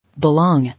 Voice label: "Belongings", English